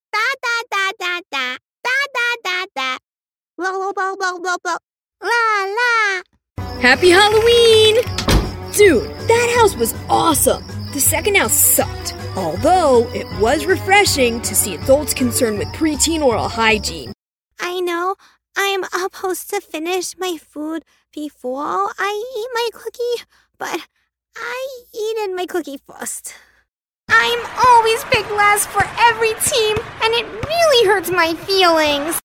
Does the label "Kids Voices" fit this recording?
Yes